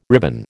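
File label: "ribbon", American English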